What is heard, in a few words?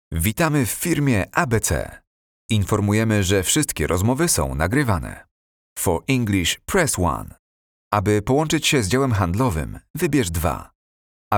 Polish IVR